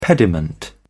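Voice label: "pediment", English, British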